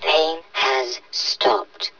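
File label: pain stop, voice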